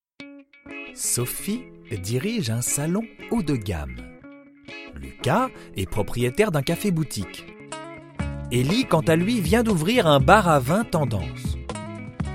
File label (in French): instit medium naturel; voix off masculine; voix off masculine naturelle, posée, souriante